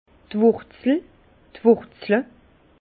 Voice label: "wurzel", Bas Rhin; vin; racines

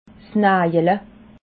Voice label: "najele", alsace

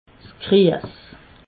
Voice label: "gries", alsace

